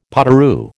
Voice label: "potoroo", American